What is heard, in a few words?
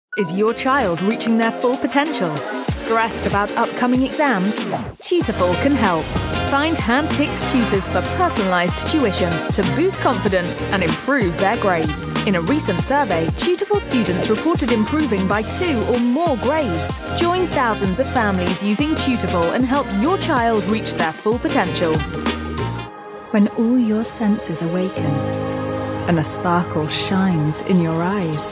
commercial